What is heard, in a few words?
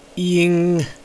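"ing", Mandarin Chinese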